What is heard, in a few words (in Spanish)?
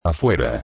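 "afuera", Spanish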